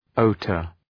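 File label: "hauteur", English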